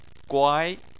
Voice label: "guai2", Chinese Phonetics